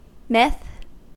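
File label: "myth", US English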